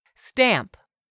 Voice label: "stamp", English; 3000 words

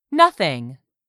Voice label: "nothing", English; 3000 words

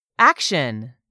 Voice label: "action", English; 3000 words

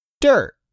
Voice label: "DIRT", English; 3000 words

